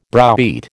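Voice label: "browbeat", American English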